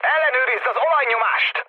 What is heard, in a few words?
damaged oil, speech hun; War Thunder